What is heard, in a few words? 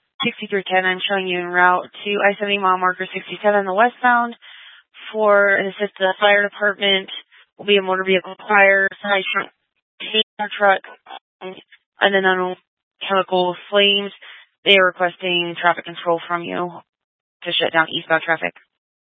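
Sheriff Dispatch, Scanner audio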